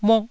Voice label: "muk4", taiwanese

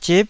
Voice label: "zip9", Taiwanese

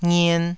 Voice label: "ngien2", Taiwanese syllable

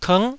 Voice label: "kng9", taiwanese syllables

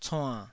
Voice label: "cuann3", Taiwanese syllable

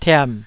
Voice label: "tiam3", Taiwanese

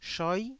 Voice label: "shoi9", taiwanese Syllables

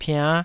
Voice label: "piann5", Taiwanese

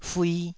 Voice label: "fui2", taiwanese syllables

